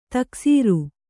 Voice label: "ತಕ್ಸೀರ್", kannada

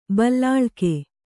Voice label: "ಬಲ್ಲಾಳಿಕೆ", kannada

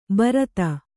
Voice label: "ಬರತ", kannada

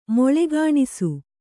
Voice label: "ಮೊಳೆಗಾಣಿಸು", Kannada

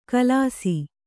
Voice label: "ಖುಲಾಸು", Kannada

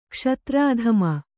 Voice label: "ಕ್ಷತ್ರಧಾಮ", Kannada

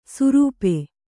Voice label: "ಸುರೂಪೆ", Kannada

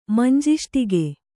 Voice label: "ಮಂಜಿಷ್ಟಿಗೆ", Kannada

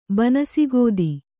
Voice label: "ಬನಸಿಗೋದಿ", Kannada

